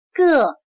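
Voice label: "各" Chinese, gè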